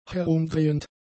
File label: "herumdrehend", German; adjectives